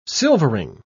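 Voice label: "silvering", British English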